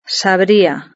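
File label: "sabría", Spanish; verb-conjugator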